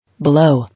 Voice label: "Below", English

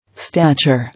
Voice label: "Stature", English

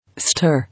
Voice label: "Stare", English

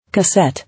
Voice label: "Cassette", English

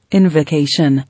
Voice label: "Invocation", English

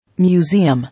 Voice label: "Museum", English

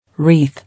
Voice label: "Wreath", English

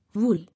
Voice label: "Wool", English

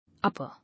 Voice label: "Upper", English

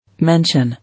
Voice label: "Mention", English

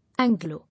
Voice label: "Anglo", English

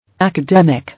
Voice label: "Academic", English

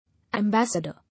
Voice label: "Ambassador", English

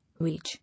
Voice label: "Wage", English

